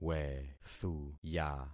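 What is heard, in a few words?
"wethuya", concatenated syllables; Affixing experiment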